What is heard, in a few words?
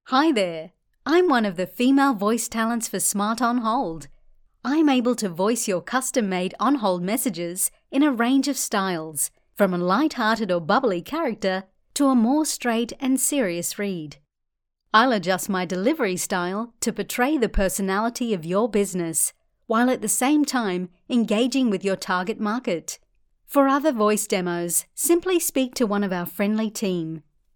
FEMALE